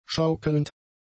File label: "schaukelnd", german; adjectives